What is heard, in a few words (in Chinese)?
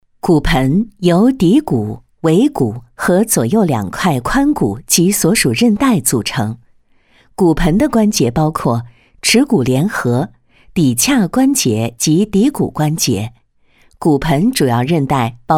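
女 课件正式知识类讲解 医学教育法规条款规章类, 国语配音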